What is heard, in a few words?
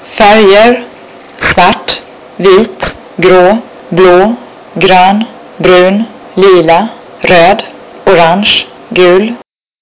swedish colors